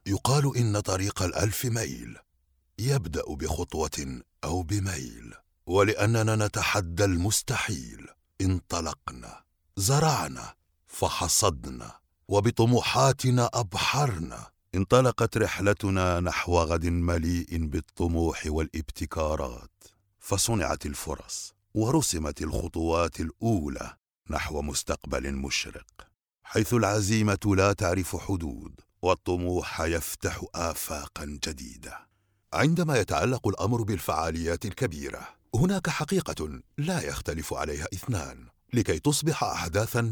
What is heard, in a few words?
arabic commercial